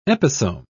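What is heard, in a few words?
"episome", British English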